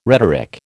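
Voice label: "rhetoric", American English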